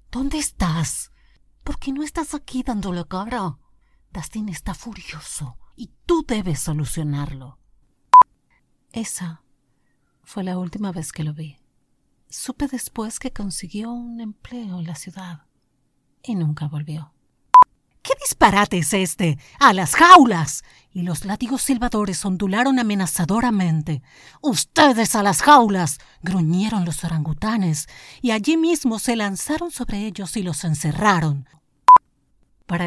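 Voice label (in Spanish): doblaje, Argentina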